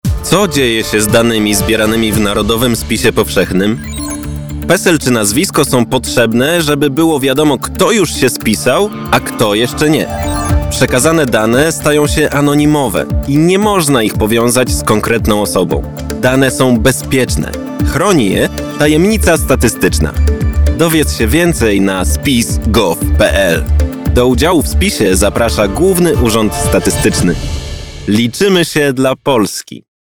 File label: radio spot